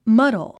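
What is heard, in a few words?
"muddle", English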